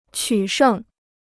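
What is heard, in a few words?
"取胜", Chinese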